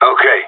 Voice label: affirmative, radio; GSG9